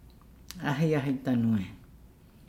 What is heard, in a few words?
"good evening", Nukuoro